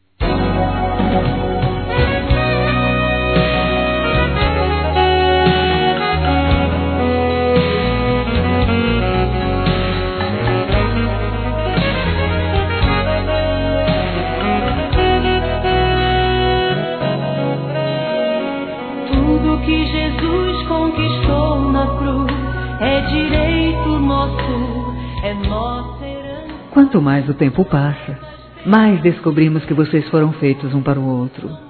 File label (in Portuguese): Aniversário Casamento Geral Feminino, Telemensagens; Aniversários